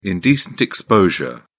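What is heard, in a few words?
"indecent exposure", British English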